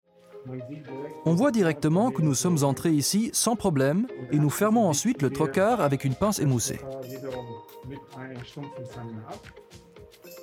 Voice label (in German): Erlaervideo fr medizinisch souveraen; BOWA